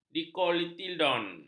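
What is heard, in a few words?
"dikotiledon", Malay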